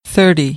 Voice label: "thirty", American, English

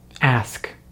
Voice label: "ask", English; Vowels in American English; easypronunciation

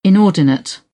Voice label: "inordinate", British; ɔːr - ɔː; English

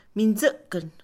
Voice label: "ofrenda", Mixe, Mujer; Religión; INALI

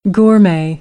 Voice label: "gourmet", American; AmericanBritish

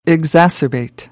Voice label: "exacerbate", American English

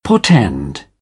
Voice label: "portend", British English

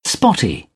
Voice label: "spotty", British; English

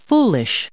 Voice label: "foolish", English

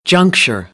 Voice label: "juncture", American; English; ɚ - əɹ

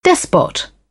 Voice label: "despot", British; AmericanBritish

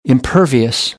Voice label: "impervious", American; ɝː - ɜː

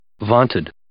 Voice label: "vaunted", English

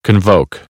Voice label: "convoke", American English; oʊ - əʊ

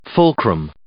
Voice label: "fulcrum", English

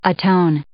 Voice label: "atone", English

